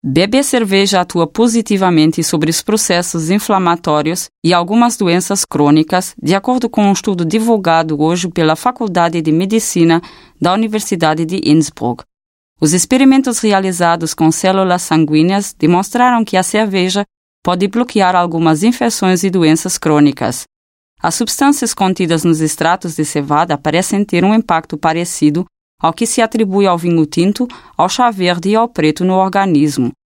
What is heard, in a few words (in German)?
brasilianisch